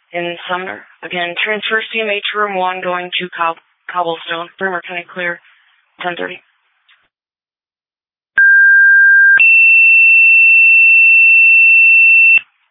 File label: Ambulance, dispatch; Sumner